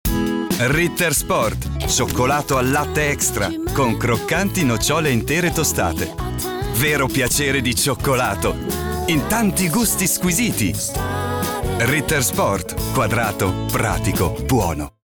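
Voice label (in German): Italian werbung, voicesamples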